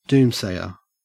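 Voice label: "doomsayer", Australian English; pronunciations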